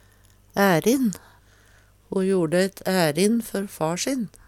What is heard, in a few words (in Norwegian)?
"ærin", Numedalsmål